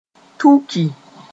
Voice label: "tuki", moore